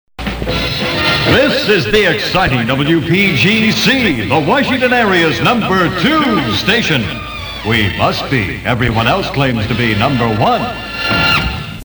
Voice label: sweeper; number 2 station

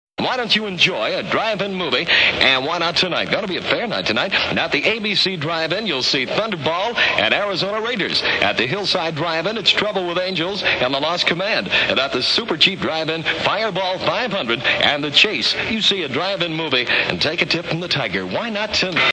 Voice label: spot; 1966; abc drive in theatre

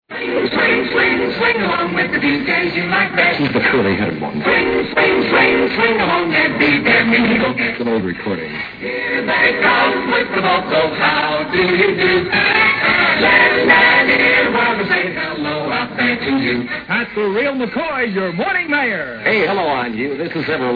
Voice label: sweeper jock roll call

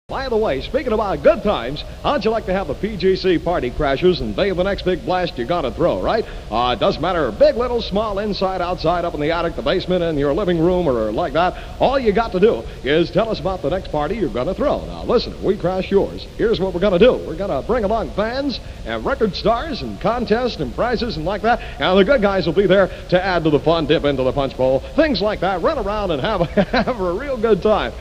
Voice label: promo; party crashers live

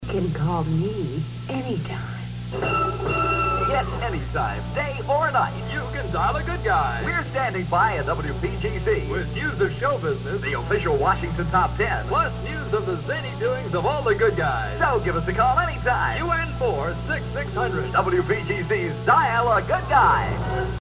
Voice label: promo; dial a good guy line

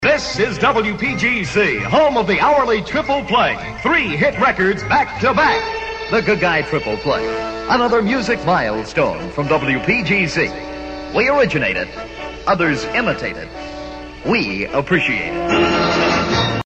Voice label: sweeper; hourly triple play

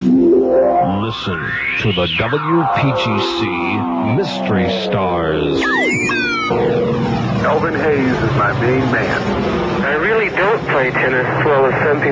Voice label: promo; summer; indy 500 star car; scott shannon

